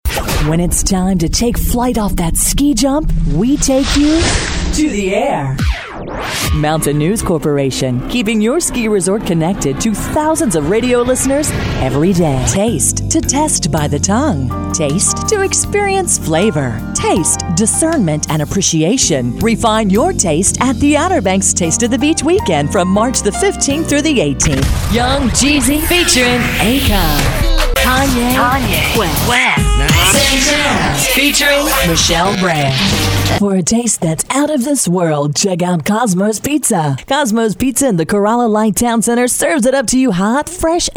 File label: imaging